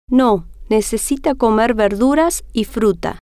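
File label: no Necesita Comer Verduras Fruta, Spanish, female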